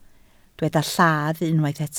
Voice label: "lladd", Welsh

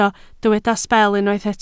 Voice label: "sbel", Welsh

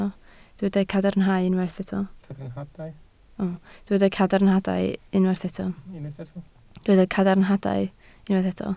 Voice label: "cadarnhadau", Welsh

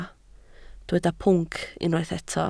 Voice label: "pwnc", Welsh

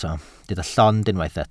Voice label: "llond", Welsh

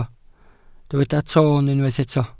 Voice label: "toon", Welsh

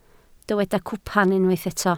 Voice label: "cwpan", Welsh

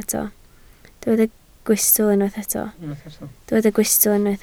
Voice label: "gwystl", Welsh

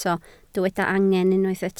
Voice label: "angen", Welsh

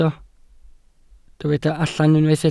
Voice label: "allan", Welsh

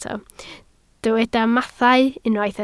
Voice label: "mathau", Welsh

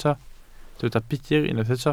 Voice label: "budr", Welsh

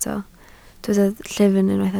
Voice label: "llyfn", Welsh

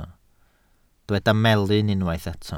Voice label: "melyn", Welsh

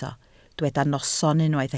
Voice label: "noson", Welsh

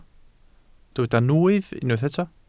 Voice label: "nwyf", welsh

